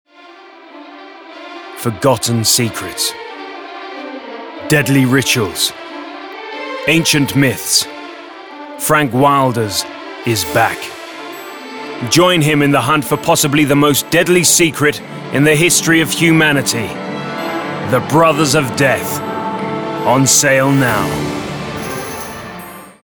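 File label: British English commercial movie trailer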